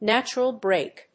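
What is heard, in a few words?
"natural break", English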